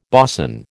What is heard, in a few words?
"boson", American